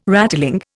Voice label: "rattling", Indian

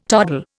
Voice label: "toddle", Indian

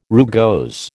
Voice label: "rugose", American

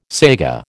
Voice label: "saiga", American

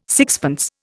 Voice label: "sixpence", Indian

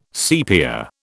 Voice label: "sepia", British

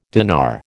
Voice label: "dinar", American

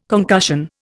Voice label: "concussion", Indian

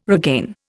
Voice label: "regain", Indian

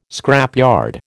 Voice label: "scrapyard", American

